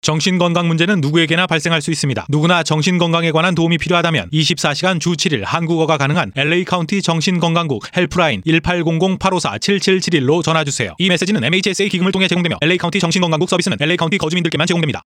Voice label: Korean Radio Ad